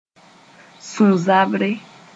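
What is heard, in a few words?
"sũ-zabre", Mooré; cardiac pain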